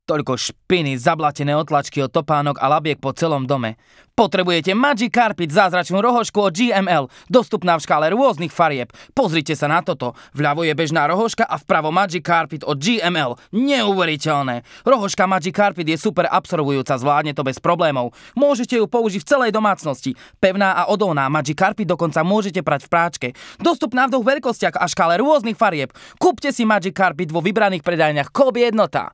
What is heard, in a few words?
Slovak TVC; Magic Carpet